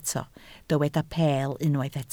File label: "peel", Welsh